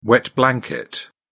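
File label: "wet blanket", British English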